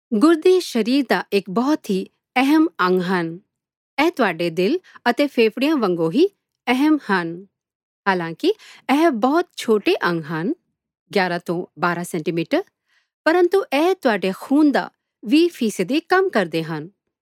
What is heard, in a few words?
Punjabi, F, Narration